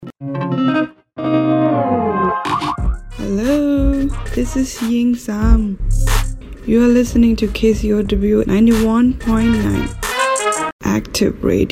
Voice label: ID Liner

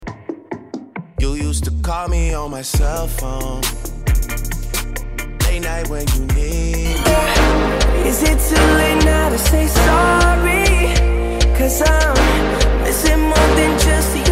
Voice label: Music Liner

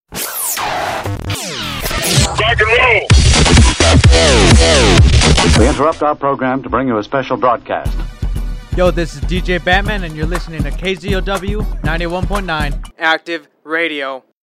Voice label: Radio Liner

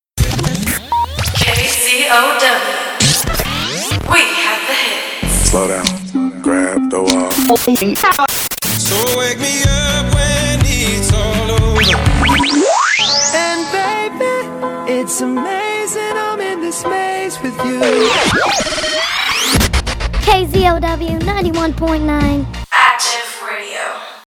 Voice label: Music Promo

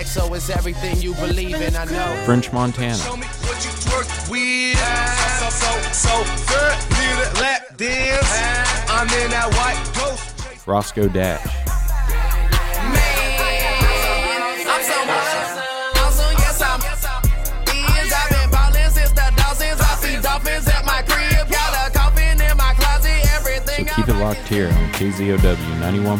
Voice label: Music Promo

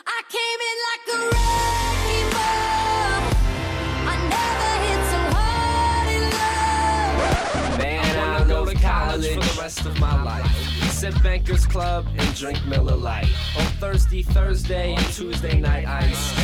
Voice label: Music Promo

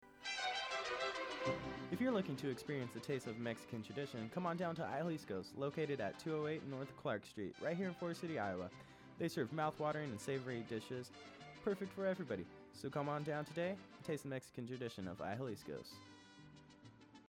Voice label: sponsor promo

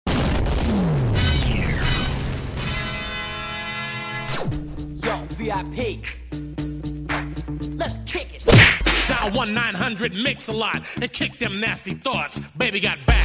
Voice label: Music Liner

